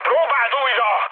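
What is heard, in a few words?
Hungarian bomb failure mood high, speech; War Thunder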